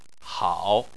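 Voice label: "hao", Chinese, third tone; Chinese Surnames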